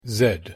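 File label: "z", English; pronunciation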